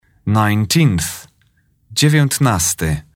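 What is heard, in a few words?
"nineteenth", English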